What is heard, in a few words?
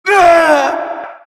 pain; halloween; merasmus